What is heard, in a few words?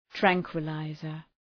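"tranquilizer", English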